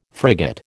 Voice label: "frigate", American

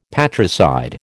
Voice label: "patricide", American

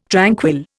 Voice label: "tranquil", Indian

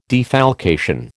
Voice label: "defalcation", American

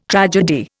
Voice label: "tragedy", Indian

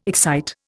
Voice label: "excite", Indian

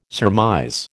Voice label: "surmise", American

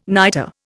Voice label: "nitre", Indian

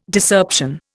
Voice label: "Discerption", Indian

